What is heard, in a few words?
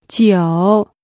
Chinese Number 2